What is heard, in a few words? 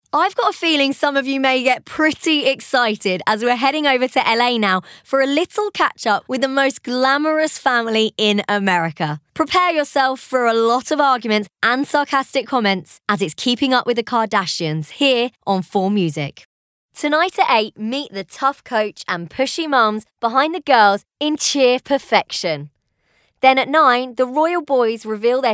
Continuity; reels